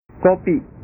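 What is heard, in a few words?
"kopi", Indonesian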